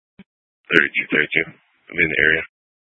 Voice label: Police Department Dispatch; Grand Junction

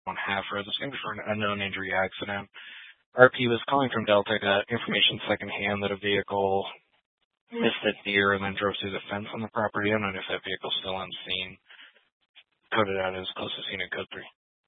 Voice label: Grand Junction Fire Department Dispatch

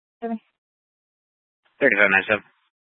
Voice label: Police Dispatch; Police 1 Dispatch

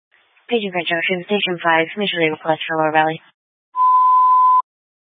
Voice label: Fire Dispatch, Scanner audio recording

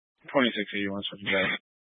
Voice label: Sheriff Dispatch; Mesa County

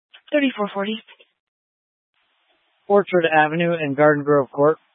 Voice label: Police 1 Dispatch

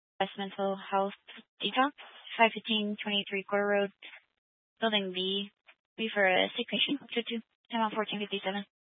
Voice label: Fire Department Dispatch, scanner

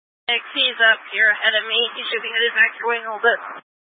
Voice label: Incident Tac 1, scanner

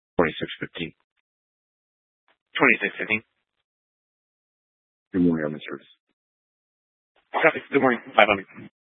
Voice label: Mesa County Sheriff Dispatch

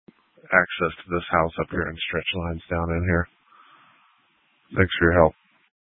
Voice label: Tactical Incidents, scanner

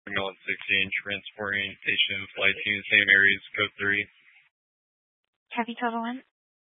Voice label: Fire Department Dispatch; Grand Junction

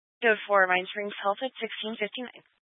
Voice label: Police Dispatch, scanner; Police 1 Dispatch

